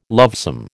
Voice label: "lovesome", American

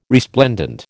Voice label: "resplendent", American

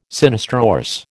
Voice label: "sinistrorse", American

